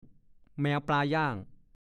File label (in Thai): "แมวปลาย่าง", ภาษาโคราช; การเล่นอย่างหนึ่ง ให้คนหนึ่งเป็นแมว อีกคนเป็นปลาย่างใช้ผ้าผูกตาคนทั้งสอง